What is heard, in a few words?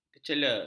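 "kecele", Malay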